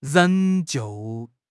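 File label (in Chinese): "仍旧", Chinese; HSK6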